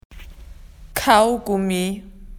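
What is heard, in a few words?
"to erase", Australian English; PictureDictionary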